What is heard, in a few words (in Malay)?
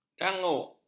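"danguk", Malay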